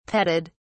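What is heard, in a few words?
"petted", English, British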